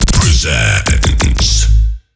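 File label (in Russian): DJ ДЖИНГЛ, Диктор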